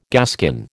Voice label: "gaskin", American

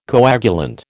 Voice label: "coagulant", American

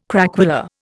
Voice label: "craquelure", Indian

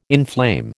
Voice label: "inflame", American

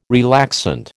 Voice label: "relaxant", American

